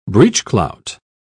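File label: "breechclout", British English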